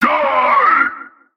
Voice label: Male Mid Combat Line, dialogue; NPC; Heist Cultist Mid Combat